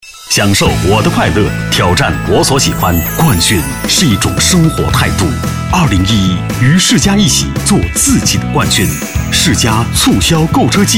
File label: boy car; Chinese; guanggao; jiqingdaqi